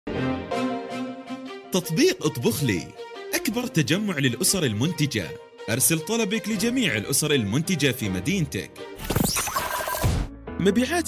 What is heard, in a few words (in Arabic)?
أعمال خليجية متنوعة